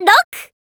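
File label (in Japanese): "ろく" (roku), Japanese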